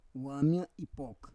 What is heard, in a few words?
"wam ya ipok", Roon